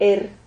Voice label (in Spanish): "her", Silabas